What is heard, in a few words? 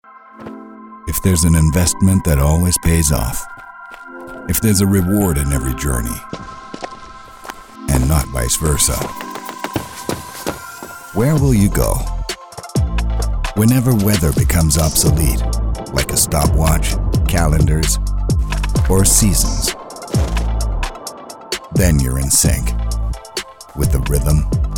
English; ZEITGEIST